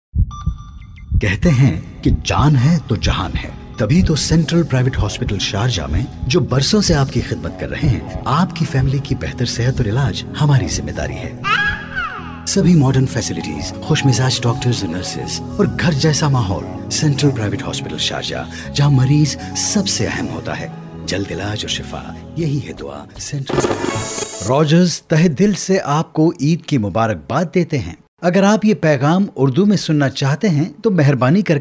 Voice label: urdu mono